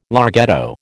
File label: "larghetto", American